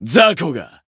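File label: attack, Japanese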